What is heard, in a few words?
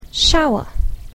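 "shower", English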